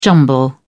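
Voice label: "jumble", British English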